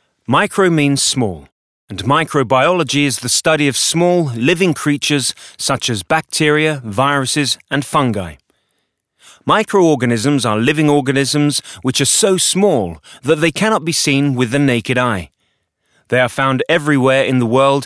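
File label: British elearning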